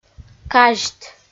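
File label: "казьт", Komi, female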